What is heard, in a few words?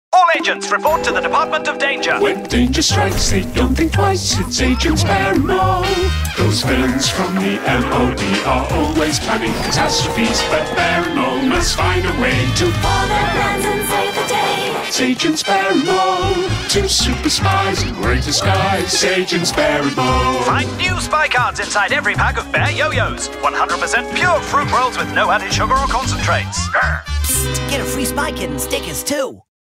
Commercial; Bears Super Spy Adventure